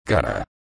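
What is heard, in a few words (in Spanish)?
"cara", español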